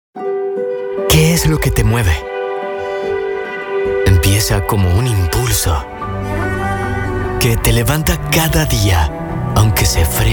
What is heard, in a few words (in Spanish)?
Locutor Institucional Voz Marca Voiceover Spanish